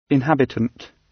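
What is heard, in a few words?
"inhabitant", British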